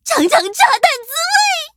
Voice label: battle, zh-hant

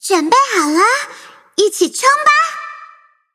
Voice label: levelup zh-hant; cookierun

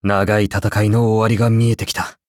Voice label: upgrade, ja; Cookie Run

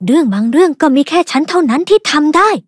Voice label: level up, th; Cookie Run

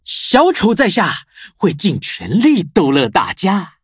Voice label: loading, zh-hant; cookierun

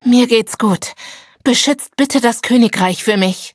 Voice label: defeat, de; cookie run

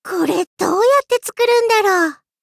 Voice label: level up, ja

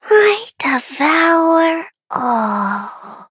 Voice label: level up, en; cookie run

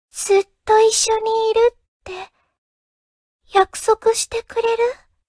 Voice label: upgrade, ja; cookie run

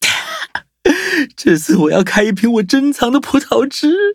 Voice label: levelup zh-hant; cookie run

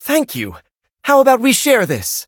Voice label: level up, en; Cookie Run

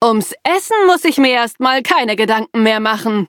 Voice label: victory, de; cookie run

